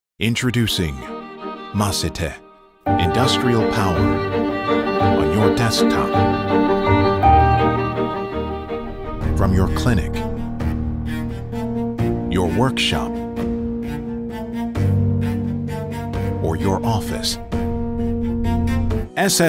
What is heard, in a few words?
Commercial